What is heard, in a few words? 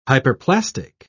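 "hyperplastic", British English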